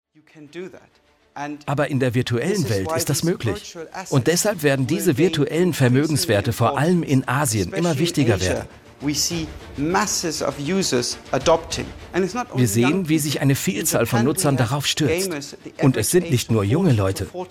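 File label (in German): Doku Blockchain VO klassisch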